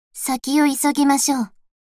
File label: Voice victory